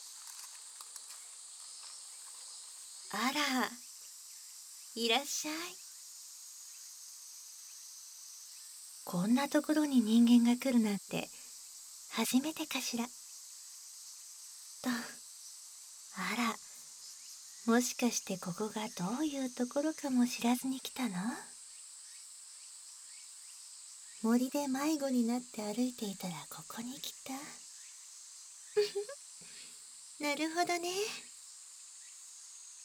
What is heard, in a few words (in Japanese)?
導入 森へようこそ（バイノーラルマイク 効果音あり）